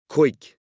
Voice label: "cuig", Irish

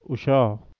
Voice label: "ushaa", Siraiki

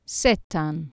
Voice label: "settan", Anglo Saxon

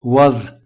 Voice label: "waz", Proto Germanic

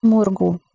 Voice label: "murgu", Proto-Germanic

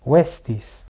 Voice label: "vestis", Latin

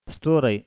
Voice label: "storay", Pashto

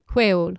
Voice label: "hweol", Anglo Saxon

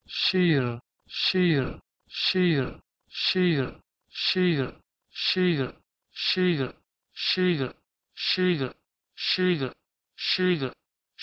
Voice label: Persian shir to Mandarin shizi

